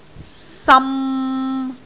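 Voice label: "sam3", Cantonese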